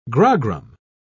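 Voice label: "grogram", British English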